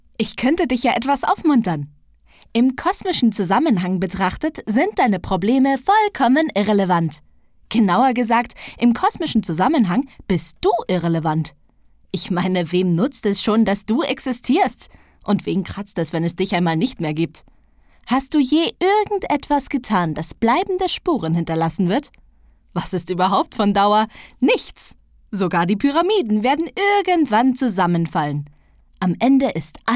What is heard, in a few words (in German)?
Gametrailer Lustig